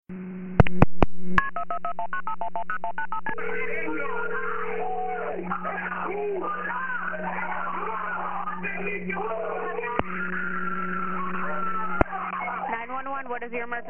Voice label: Bar 911 call; Last Call Bar